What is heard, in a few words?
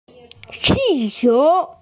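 "balloon", Mandarin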